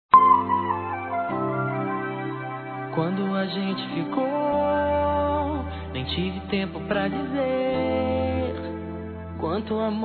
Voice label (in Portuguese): ANIV. ROMANTICO F; Aniversários; fem